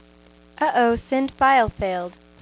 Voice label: Send File Failed (Female); q95demo